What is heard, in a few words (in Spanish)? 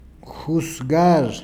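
"juzgar", Spanish, Peru, Cuzco; [xusˈɣ˕aʐ]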